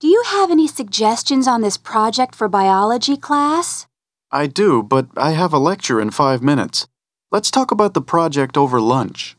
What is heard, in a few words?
dialog